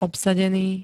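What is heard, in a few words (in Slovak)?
"obsadený", Slovak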